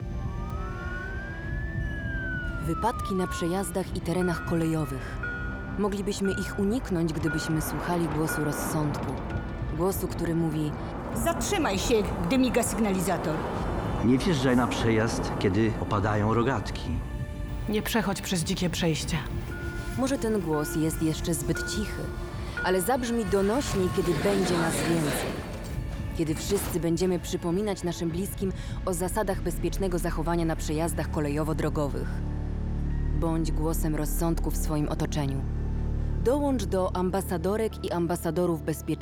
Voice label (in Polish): radio aktorzy